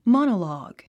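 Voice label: "monologue", English word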